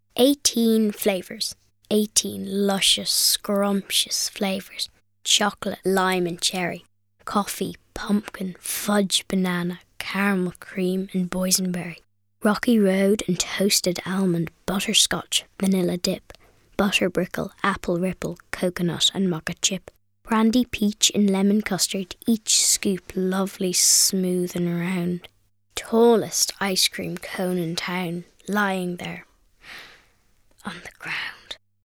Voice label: Narration